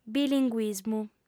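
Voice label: "bilinguismu", female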